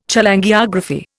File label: "Cholangiography", Indian